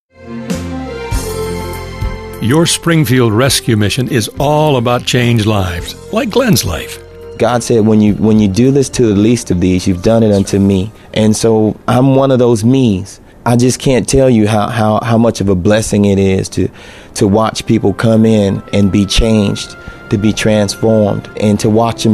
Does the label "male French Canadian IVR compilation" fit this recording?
No